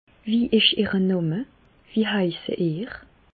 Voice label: "name", Bas Rhin; medecine; quel est votre nom

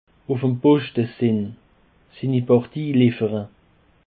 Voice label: "poschtesinn", Alsace

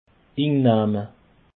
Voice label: "innahme", Alsace

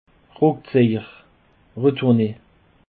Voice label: "ruckzejer", Alsace

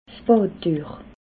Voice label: "badtuech", alsace

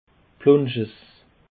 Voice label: "plongess", Alsace

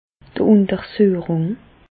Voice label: "untersuechung", Bas Rhin; medecine; examen médical

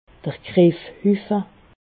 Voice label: "griffhuffe", alsace

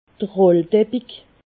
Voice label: "rolldepig", alsace

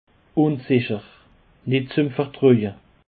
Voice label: "unsicher", Alsace

